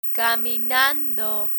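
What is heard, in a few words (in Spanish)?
"caminando", Spanish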